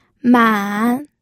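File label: "man", third tone, pinyin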